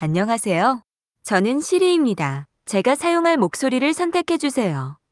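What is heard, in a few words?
Korean, Korea; iOS 26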